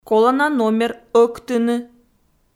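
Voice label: "колана номер ӧктыны" Komi, female; audio dict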